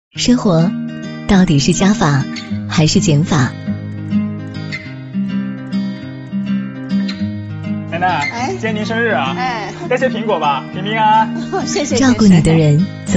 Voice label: 女 品牌短片; 丰收联盟

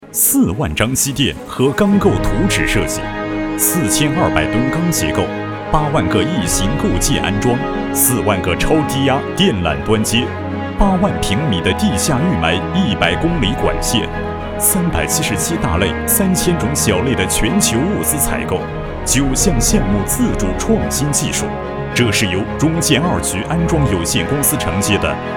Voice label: 男 宣传片; 迪士尼

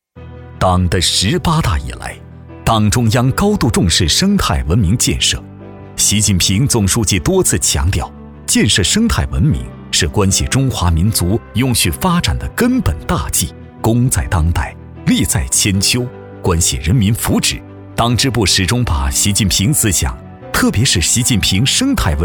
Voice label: 男, 红色宣传片, 大气浑厚

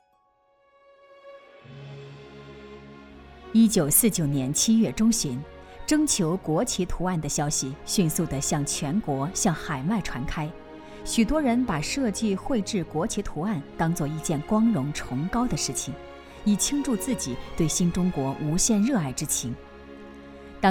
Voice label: 女 专题片 红色教育; 国旗教育馆

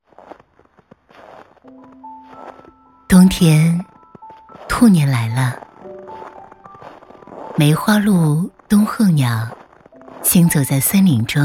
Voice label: 女 诗意; 临安

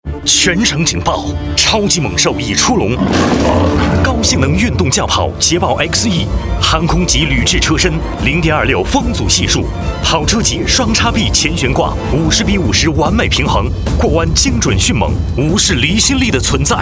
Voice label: 男 广告 国语男声配音; 捷豹XE猛兽来袭

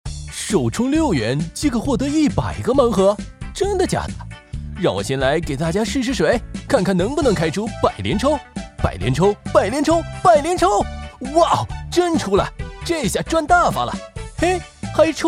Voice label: 男 信息流 抽卡

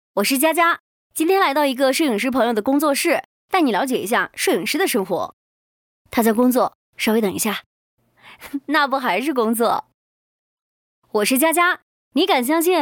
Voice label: 女 素人

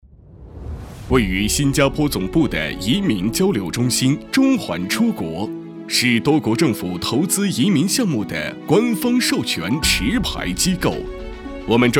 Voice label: 男 中文 浑厚 企宣

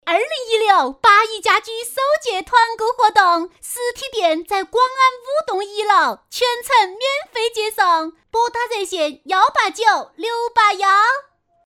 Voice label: 四川话重庆话, 国语女声配音; 八益家居首届万人工厂团购活动

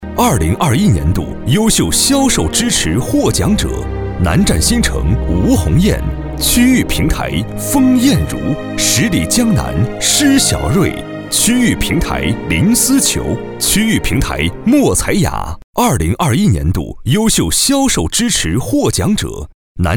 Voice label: 男, 颁奖, 公司颁奖

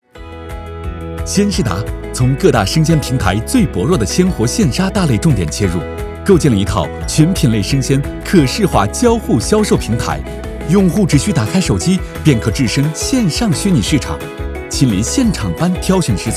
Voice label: 男 MG 样音 平稳

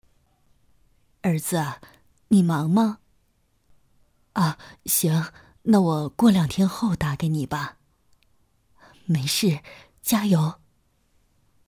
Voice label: 母亲角色配音

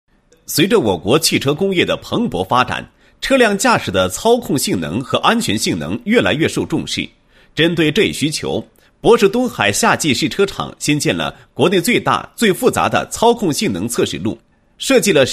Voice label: 男27-工程解说; 连续急弯操控性能测试路智能化摊铺施工技术

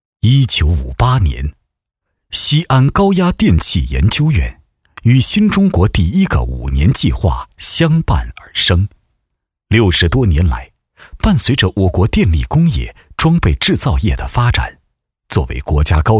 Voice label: 纪录片; 西高院纪录片文稿

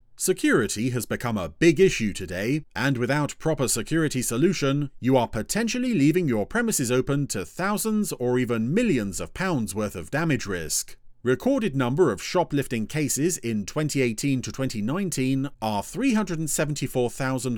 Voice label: 外籍英男 交通安全专题

